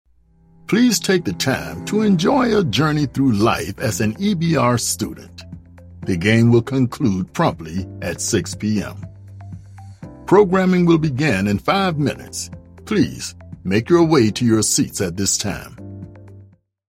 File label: announcer casual read